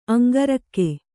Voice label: "ಅಂಗರಕ್ಕೆ", Kannada; aŋgarakke; noun; a mail; an armour.

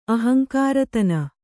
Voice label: "ಅಹಂಕಾರತನ", kannada

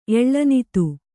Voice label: "ಎಳ್ಳನಿತು", Kannada; eḷḷanitu

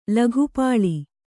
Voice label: "ಲೋಕಪಾಲ", Kannada

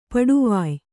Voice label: "ಪಡುವಾಯ್", kannada

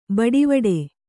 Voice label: "ಬಟ್ವಾಡೆ", Kannada

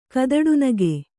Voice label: "ಕದಡುನಗೆ", Kannada; kadaḍunage; noun

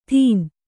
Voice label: "ದಿನ", Kannada

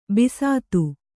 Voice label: "ಬಸ್ತಿ", Kannada

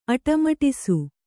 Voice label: "ಅಟ್ಟಮಡಿಸು", Kannada

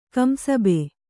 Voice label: "ಕಂಸಬೆ", kannada

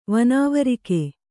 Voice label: "ವನಾವರಿಕೆ", Kannada; vanāvarike; noun; foetid cassia

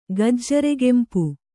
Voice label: "ಗಜ್ಜರೆಗೆಂಪು", Kannada

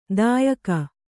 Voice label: "ದಾಯಿಗ", kannada

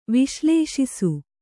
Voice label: "ವಿಶ್ಲೇಷಿಸು", Kannada

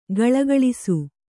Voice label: "ಘುಳುಘುಳಿಸು", Kannada

